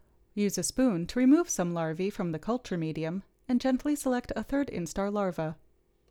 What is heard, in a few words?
Gong Voiceover